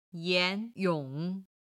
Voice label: "yan2 yong3", Chinese

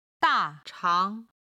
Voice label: "da4 chang2", Chinese

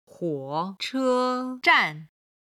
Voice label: "huo3che1zhan4", Chinese

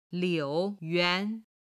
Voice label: "liu3 yuan2", Chinese

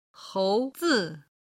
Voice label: "houzi", Chinese

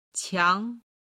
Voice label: "qiang", Chinese

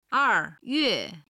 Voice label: "er4yue4", Chinese

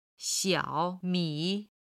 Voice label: "xiao3 mi3", Chinese

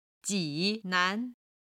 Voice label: "ji3 nan2", Chinese

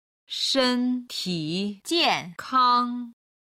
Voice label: "shentijiankang", chinese

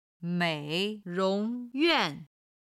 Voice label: "mei3rong2yuan4", chinese

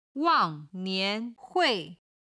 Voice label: "wang4nian2hui4", chinese

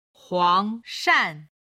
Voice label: "huangshan", Chinese

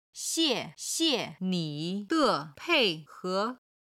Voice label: "xie4 xie5 ni3 de5 pei4 he2", chinese

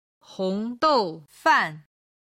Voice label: "hongdoufan", chinese

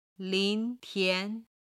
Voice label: "lin2 tian2", Chinese

